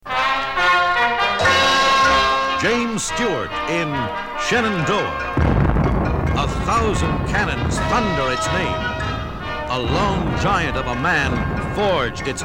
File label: Radio Spot; Shenandoah